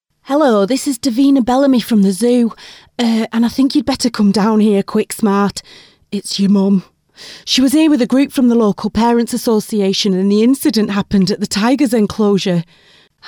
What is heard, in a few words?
character voices; comedy characters